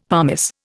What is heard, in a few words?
"pumice", Indian; Dictionary